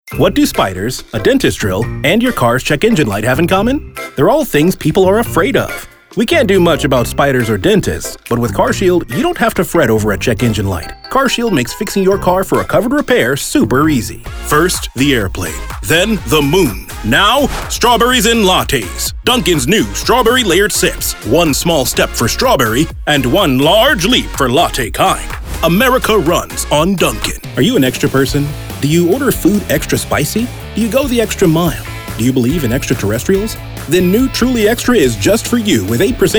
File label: Commercial; Commercial Demo